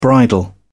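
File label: "bridle", English, British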